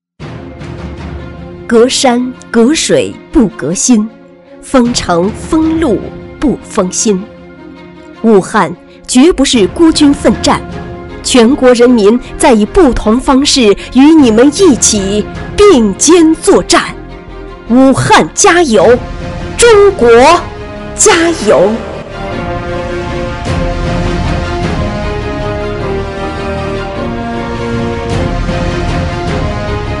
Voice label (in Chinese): 公益广告; 自制疫情公益广告